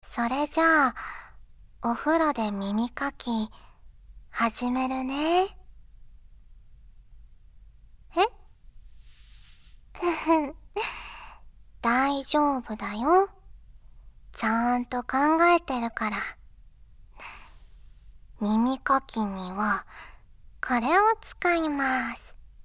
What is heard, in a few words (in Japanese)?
はじめ左側 声のみ ノイズ除去なし